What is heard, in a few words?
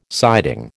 "siding", American